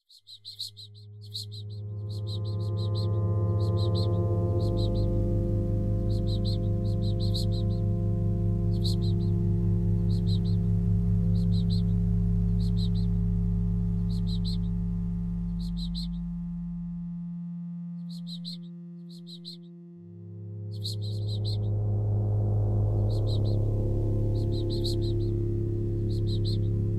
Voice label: welcome whispers